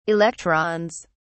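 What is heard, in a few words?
"electrons", British English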